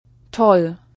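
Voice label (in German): "toll", German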